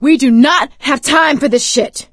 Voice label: reaction negative, survivor voice; Left 4 Dead 2; producer

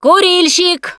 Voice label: warn smoker, russian, Survivor Voice Producer

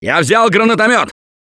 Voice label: grenade launcher, russian; Gambler

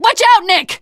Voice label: teengirl, voice; Left 4 Dead 2

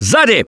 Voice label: watch out behind, russian; Gambler

